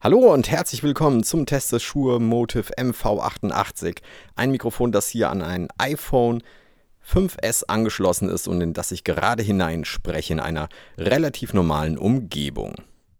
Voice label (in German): shure motiv mv88 sprache